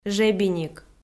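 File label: "жебиник", Komi, female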